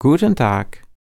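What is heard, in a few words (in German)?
"guten tag", German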